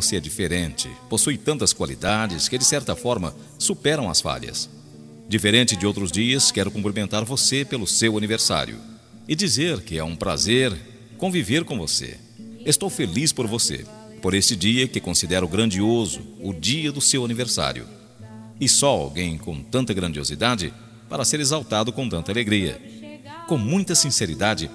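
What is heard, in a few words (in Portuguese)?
aniversario cunhado masculino, telemensagem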